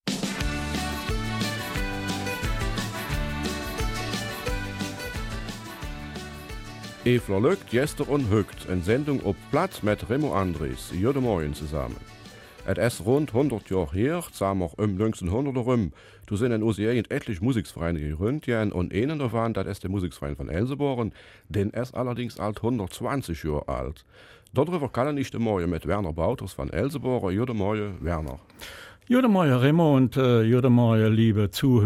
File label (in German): Eifeler Mundart; Musikverein Elsenborn wird 120 Jahre